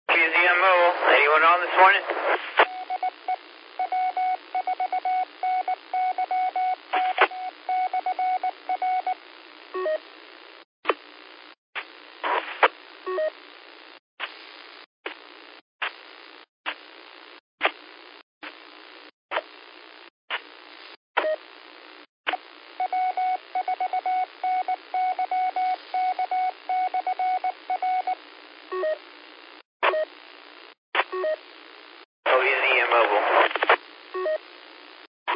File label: qso rec simplex logic